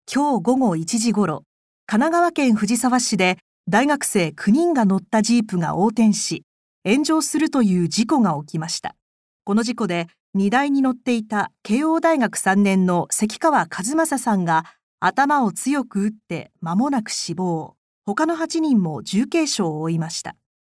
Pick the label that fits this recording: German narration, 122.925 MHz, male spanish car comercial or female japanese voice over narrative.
female japanese voice over narrative